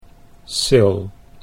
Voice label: "Syl" Dutch